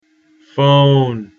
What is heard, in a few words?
phone